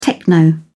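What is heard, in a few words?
"techno", British English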